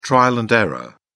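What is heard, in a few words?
"trial-and-error", British English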